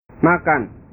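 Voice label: "makan", Indonesian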